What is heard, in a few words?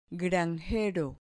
"granjero", Spanish